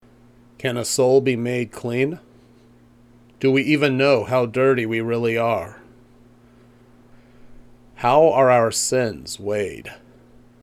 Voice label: Voiceover